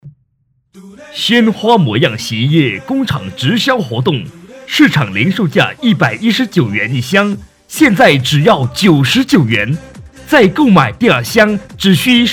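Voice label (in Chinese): 促销洗衣液促销活动; 鲜花模样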